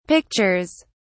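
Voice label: "pictures", British English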